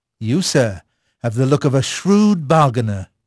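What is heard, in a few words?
English male; Pirates Of The Caribbean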